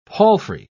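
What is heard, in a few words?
"palfrey", British English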